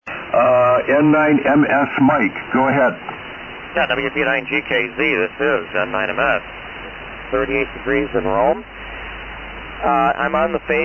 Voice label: Phasemaster 2B Condensor Mic; Rome, Wi.

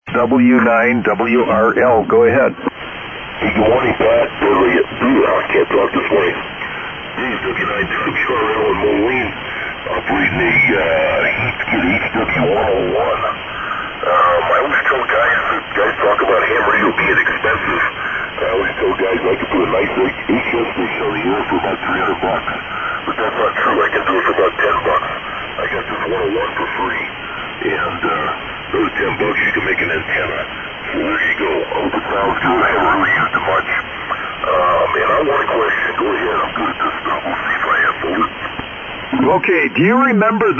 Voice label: Heathkit HW-101; Moline IL.

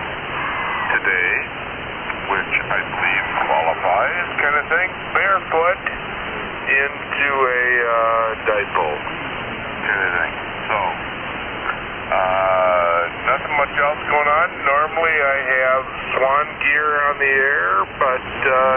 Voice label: Kenwood TS-680S; Fitchburg, Wi.

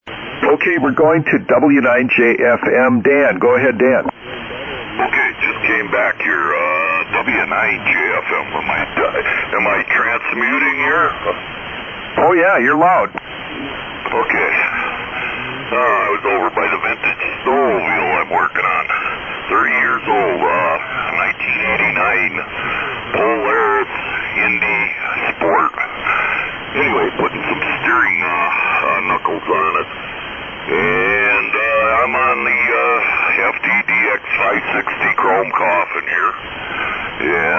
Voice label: Yaesu FTDX; Algoma, Wi.

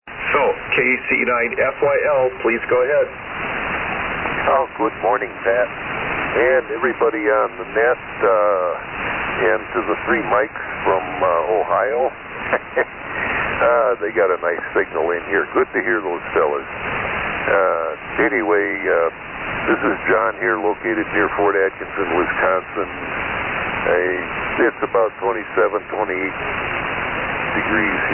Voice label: S-Line & 10-D Mic; Fort Atkinson, Wi.

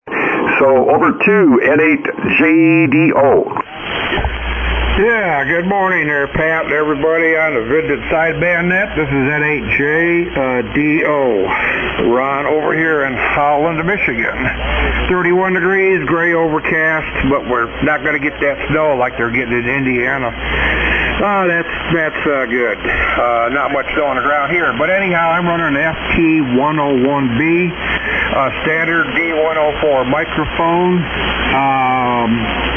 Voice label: Yaesu FT-101B D-104 Mic & FL-2100 Amp; Holland, Mi.

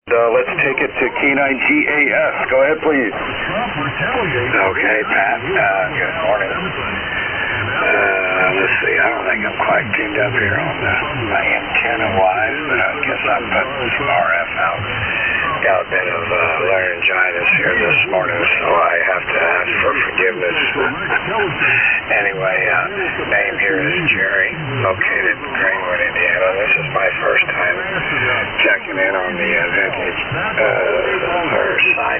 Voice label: Drake T-4XC AL-82 Amp & D-104 Mic; Greenwood, IN.

